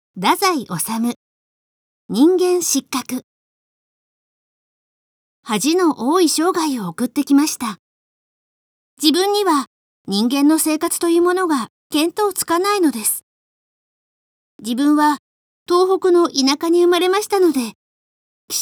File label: girl; 人間失格; 太宰治